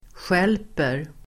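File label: "stjälper", Swedish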